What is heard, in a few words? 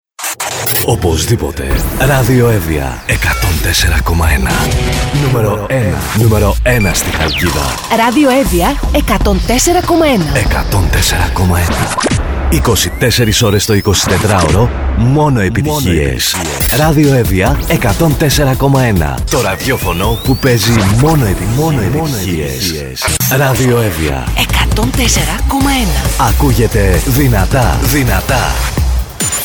Sweepers; Spotcity; Radio Evia